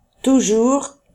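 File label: "toujours", French; famille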